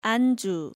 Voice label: "Anju", Korean